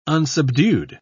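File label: "unsubdued", British English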